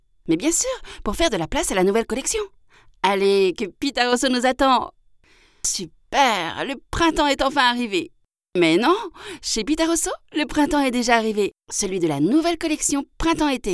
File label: french european female commercial